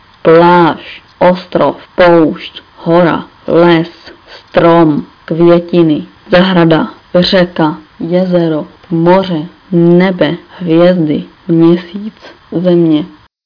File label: czech